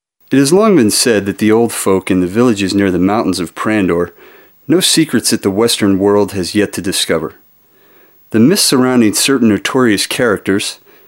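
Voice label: male English documentary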